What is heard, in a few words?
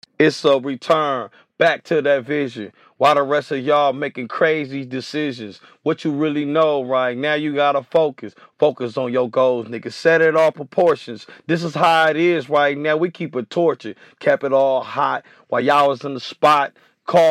hip hop, 85, G sharp, acapella